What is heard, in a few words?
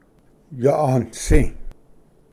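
"yaanisi", Cherokee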